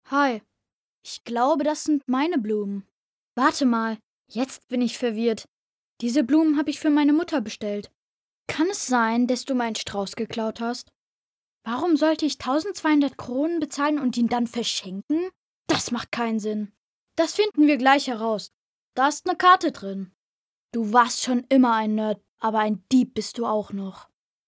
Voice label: synchron

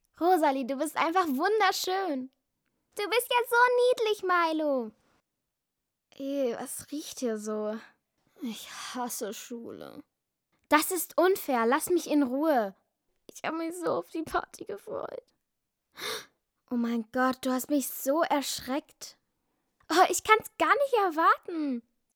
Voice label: emotion

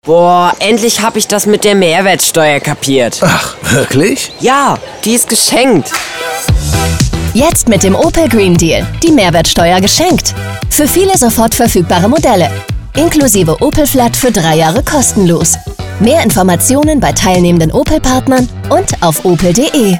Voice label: 13 jahre funkspot; opel

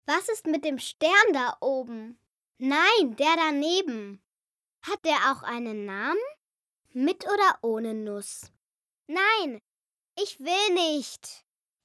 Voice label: emotion, Kindersprecher

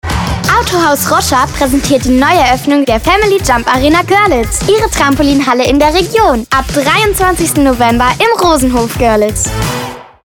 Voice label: trailer; jumparena

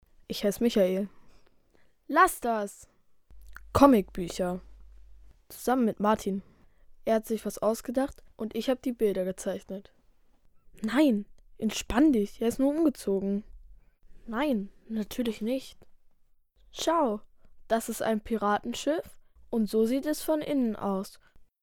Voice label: synchron realfilm; Kindersprecher

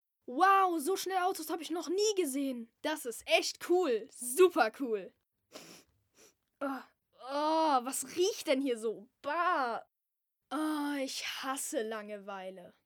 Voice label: emotion

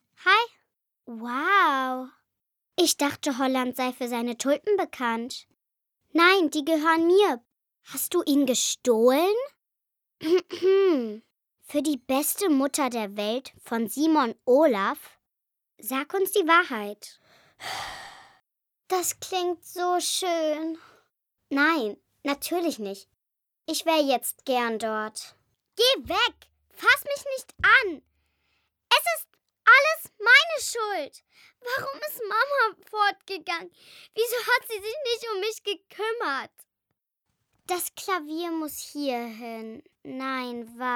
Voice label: emotion

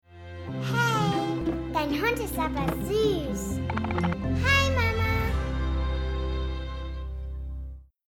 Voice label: 8 jahre tv-spot; barbie; Kindersprecher

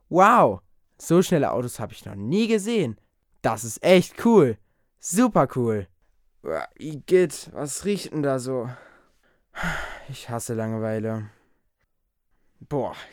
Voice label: emotion

